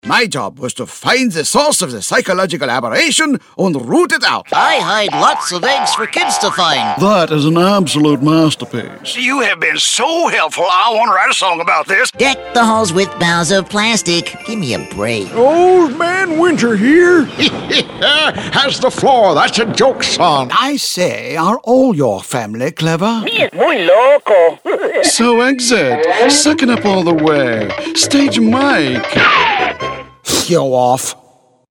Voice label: US dub showreel